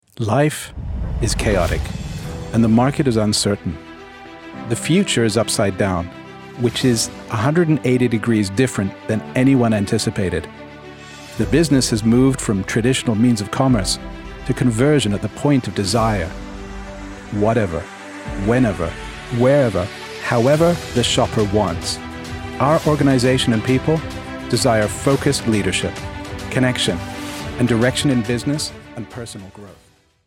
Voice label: industrial segment friendly professional clear, US; SC Johnson